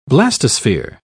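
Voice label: "blastosphere", British English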